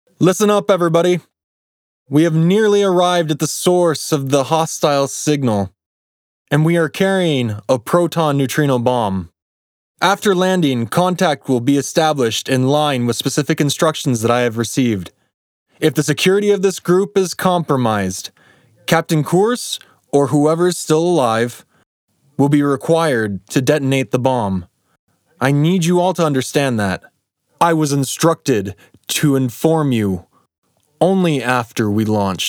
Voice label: movie US